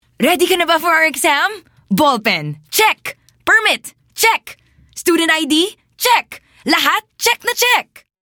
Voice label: Taglish (Confident, Excited)

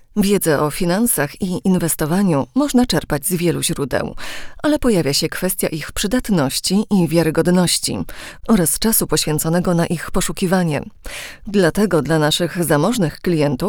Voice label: polish

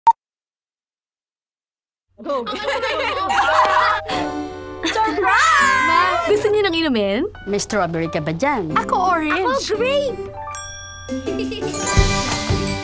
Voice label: Tagalog (Announcer, Vibrant)

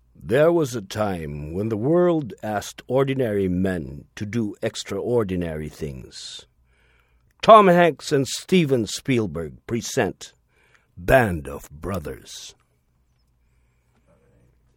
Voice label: English, Authoritative, Husky

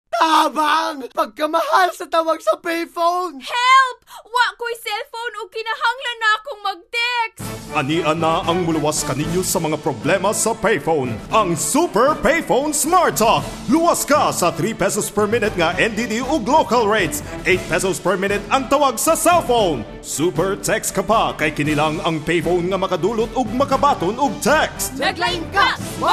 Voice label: Cebuano, Announcer, Clear

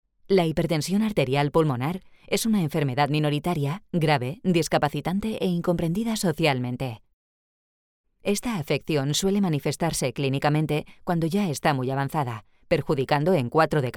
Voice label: spanish

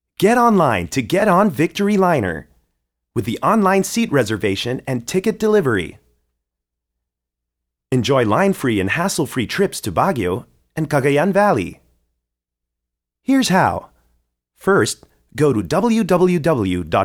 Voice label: English (Promo, Friendly)

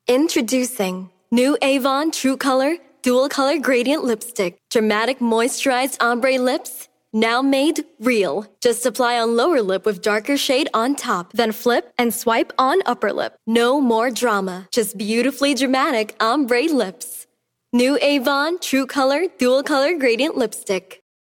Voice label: English (Girl Next Door)

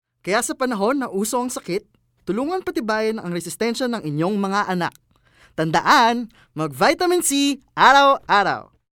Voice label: Tagalog (Selling)

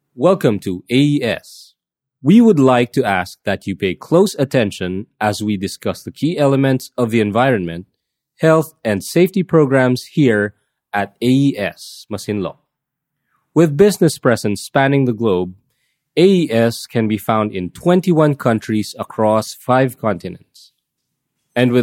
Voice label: English, Tutorial, Clear

